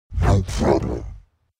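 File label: "no problem", orc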